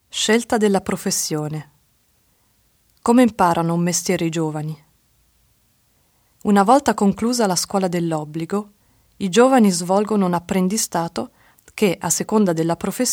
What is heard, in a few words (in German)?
berufswahl italienisch